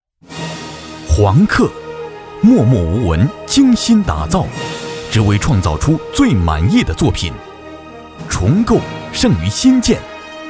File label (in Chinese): 男 颁奖 颁奖人物